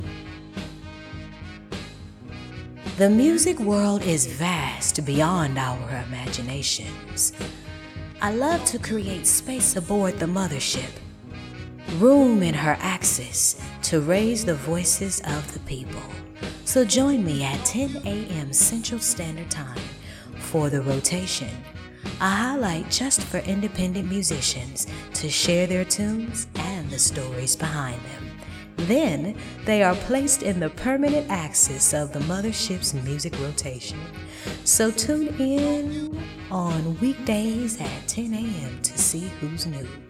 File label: Promo; Rotation